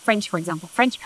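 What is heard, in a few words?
voice acting, es, female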